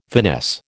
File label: "finesse", American